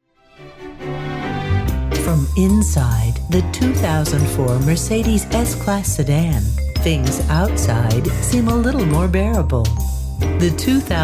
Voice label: female voice radio commercial